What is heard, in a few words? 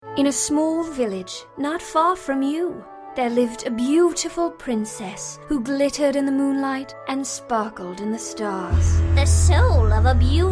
Animation, vo